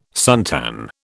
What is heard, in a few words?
"suntan", British